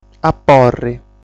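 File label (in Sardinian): "Aporri", Sardinian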